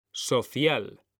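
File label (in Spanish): "social", Spanish; mekanta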